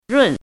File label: "run4", pinyin